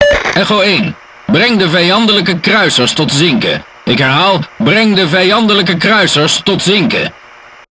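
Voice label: Speech Tutorial, Dutch; World Of Warships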